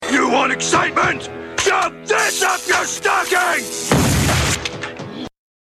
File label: excitement